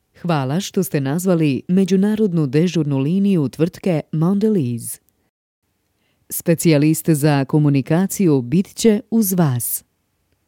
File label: IVR